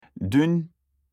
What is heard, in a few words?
yesterday in turkish